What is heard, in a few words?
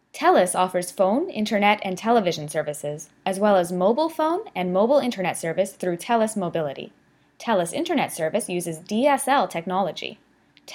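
English Canadian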